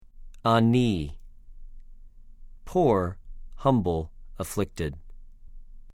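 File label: "עָנִי", Hebrew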